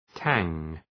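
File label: "tang", English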